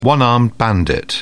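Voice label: "one-armed bandit", English, British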